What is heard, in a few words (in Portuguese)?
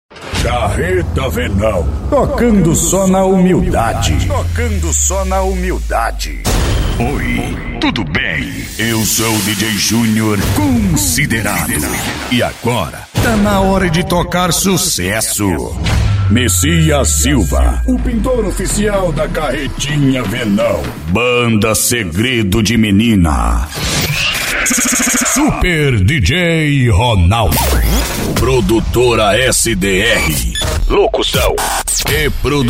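♂, vozes para vinhetas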